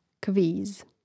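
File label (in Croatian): "kviz" Croatian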